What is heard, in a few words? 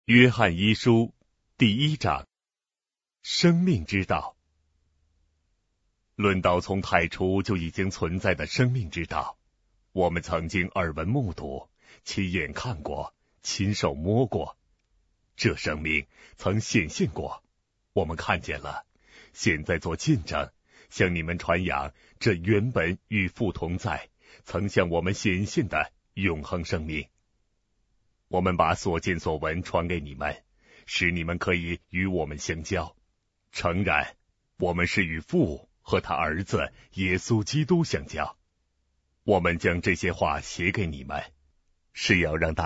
chinese mandarin 1st john